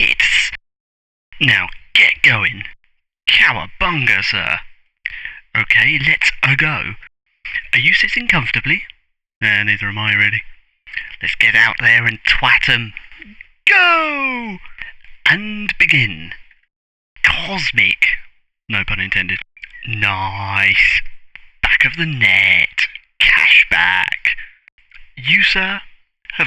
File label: Narration; Really Big Sky